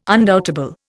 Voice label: "undoubtable", Indian

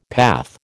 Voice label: "path", American

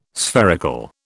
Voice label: "spherical", British

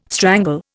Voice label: "strangle", Indian

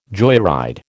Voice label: "joyride", American

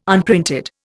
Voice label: "unprinted", Indian

